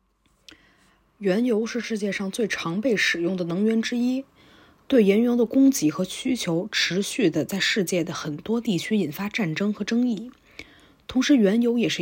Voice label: Mandarin; Crude Oil